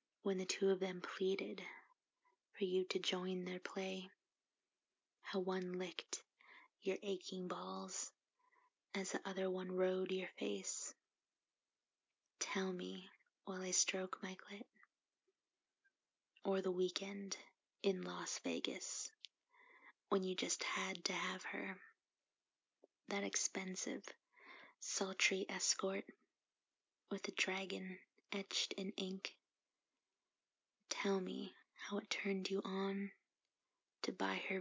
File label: bedtime story, F4M, Erotic poetry; Wet silk collection